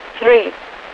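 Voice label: "3", English; Messages; 3-end; Speech; DCS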